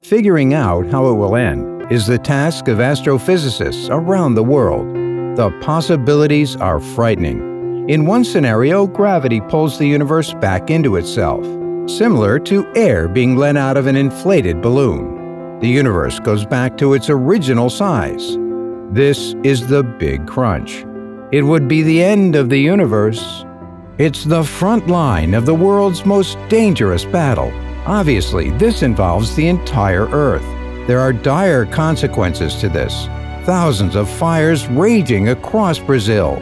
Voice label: Documentary